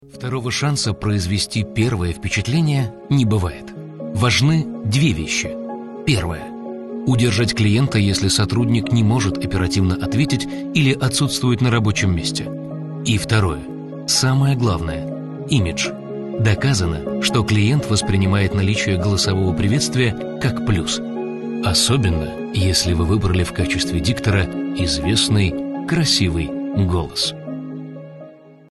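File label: zg calm confident, Russian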